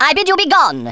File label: leave me alone, Speech Thespian; Worms Armageddon